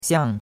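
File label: "xiang4", Mandarin Chinese; Cours de Chinois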